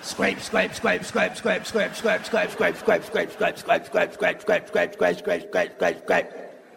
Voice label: scrape scrape scrape scrape scrape scrape scrape scrape scrape, Voice